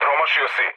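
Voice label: Serbian bomb failure mood low, speech; War Thunder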